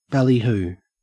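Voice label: "ballyhoo", Australian English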